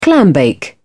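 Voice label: "clambake", British English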